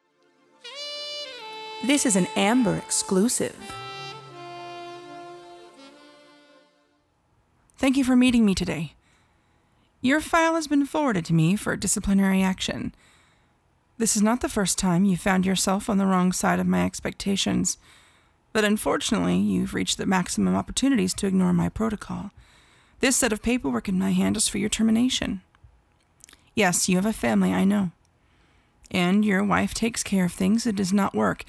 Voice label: Female Boss